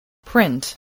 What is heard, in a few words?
"print", voice, spelling